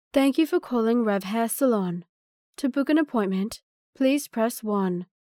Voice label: 外籍英女 亲切舒适 预订电话

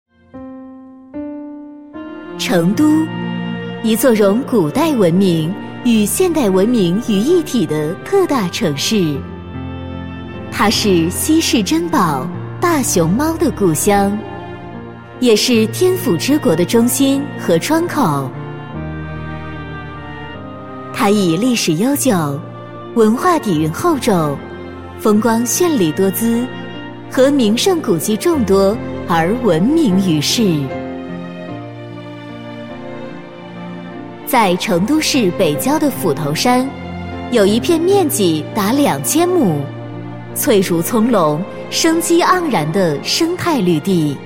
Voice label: 女 专题 大熊猫基地, 国语女声配音

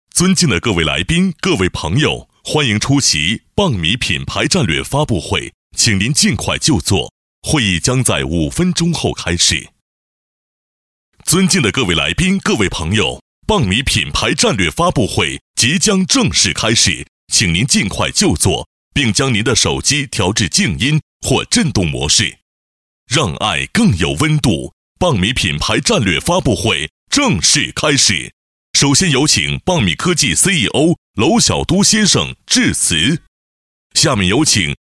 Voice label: 男60号 颁奖; 棒米发布会颁奖; 交广主播

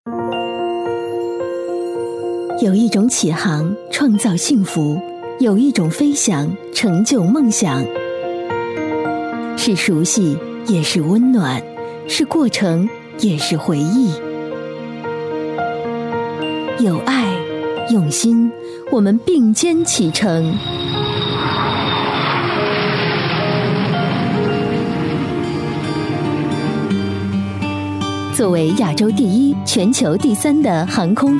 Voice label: 女 知性 中国南方航空

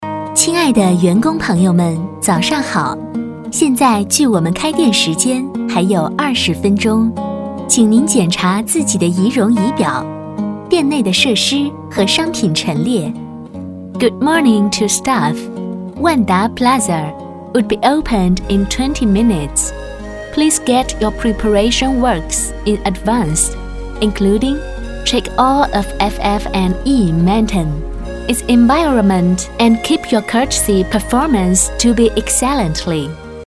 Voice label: 女 商场广播 中英文

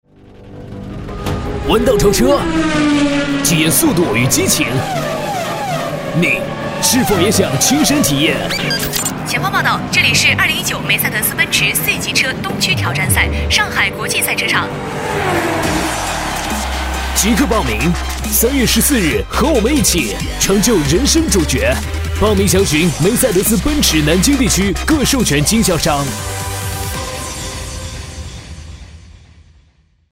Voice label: 男, 广告配音; 奔驰挑战赛

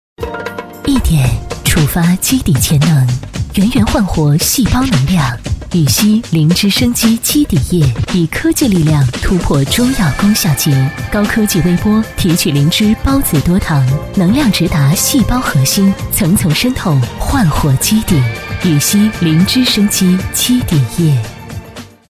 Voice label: 女4号 广告 洗化类 感性品质, 国语女声配音

